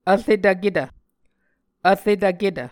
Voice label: "vretvketv", muscogee

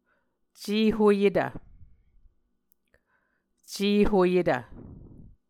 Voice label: "cehoyetv", Muscogee

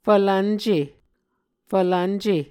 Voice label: "fvlvnce", Muscogee

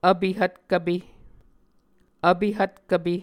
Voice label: "vpe hvtkvpe", muscogee

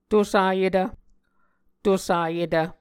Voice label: "tosayetv", Muscogee

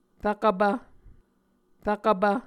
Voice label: "rvkvpv", muscogee

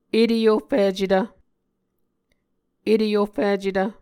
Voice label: "eteyoficetv", Muscogee

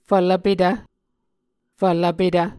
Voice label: "fvlvpetv", Muscogee

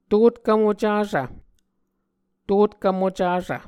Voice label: "totkv mocasv", Muscogee

